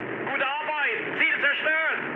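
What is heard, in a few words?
German bomb success mood high, speech; War Thunder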